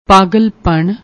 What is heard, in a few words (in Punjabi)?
"pagalpan", Punjabi; noun, masculine